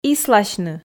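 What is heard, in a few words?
"исласьны", Komi, female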